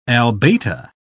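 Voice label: "albata", British English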